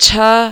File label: "chha", marathi